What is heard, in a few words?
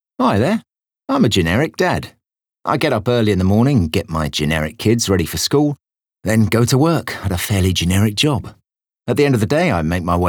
Generic Dad, Conversational, Casual, Warm, Friendly, Comedy, RP ('Received Pronunciation')